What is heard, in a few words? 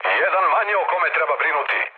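Serbian player killed enemy mood low; War Thunder; speech srb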